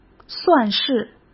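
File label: "算是", Mandarin Chinese; chinese-flashcards; hsk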